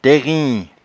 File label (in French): "tairin", patois jura